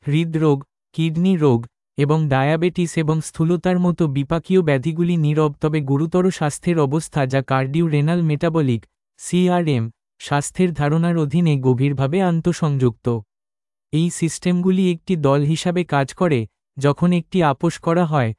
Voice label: video Male Bengali